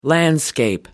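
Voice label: "landscape", British English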